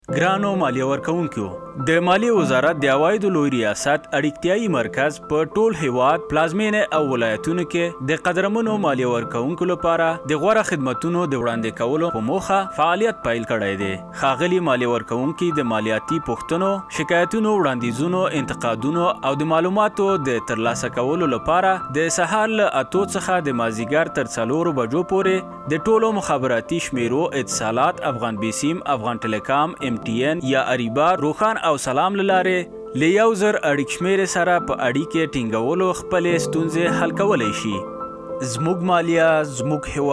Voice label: Pashto; ARD